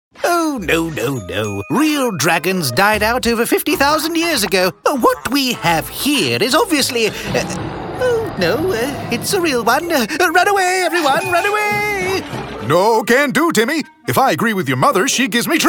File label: Animation